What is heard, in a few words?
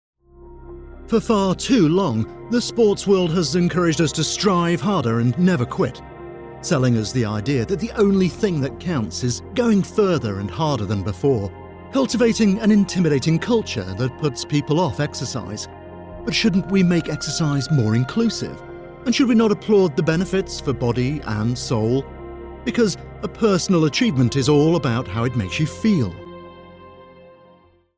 voiceover narrative documentary sports